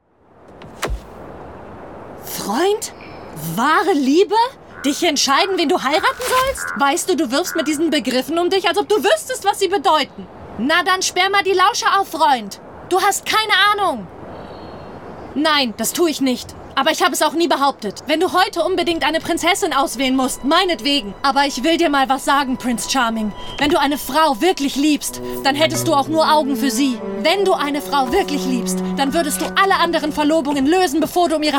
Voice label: Animation

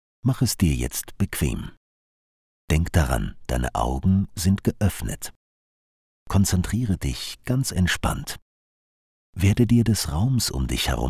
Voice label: sparberg meditation

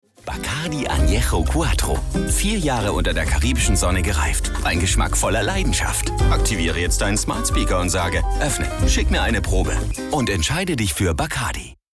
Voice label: Spot; Bacardi

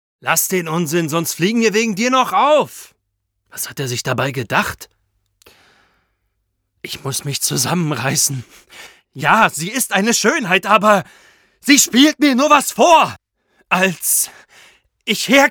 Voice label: anime; LOFT VOICES

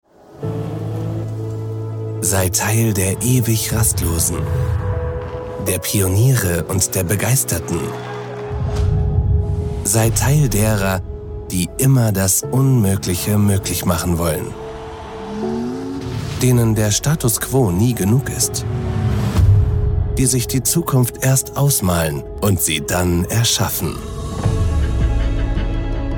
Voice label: Imagefilm internal; Audi; LOFT VOICES

